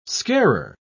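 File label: "scarer", British English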